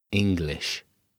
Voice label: English word